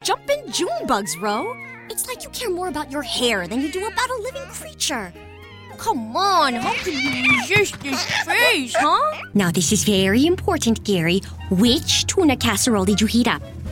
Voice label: animation, voiceover